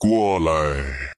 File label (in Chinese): 过来 水牛音